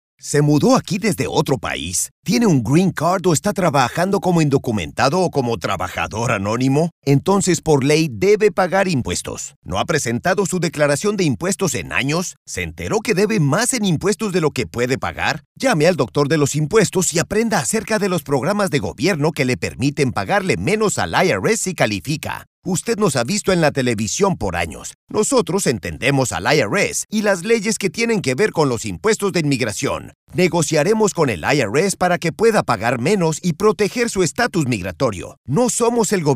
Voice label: Spanish; TaxDoctor